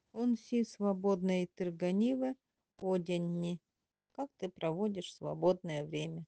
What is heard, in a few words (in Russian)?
время эвенкийский; Ады час?; Он си свободнэй тырганивэ одянни.